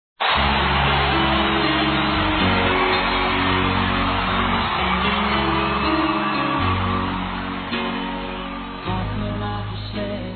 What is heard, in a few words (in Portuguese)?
DIA PAIS ROMANTICO F, Telemensagens; Telemensagens 2017